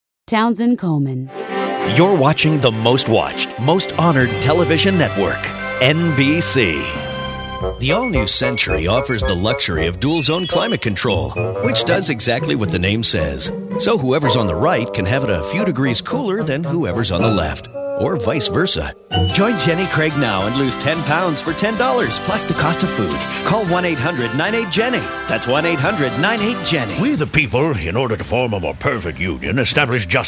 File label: commercial